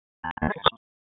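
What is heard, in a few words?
Raleigh Police North District Tactical; wake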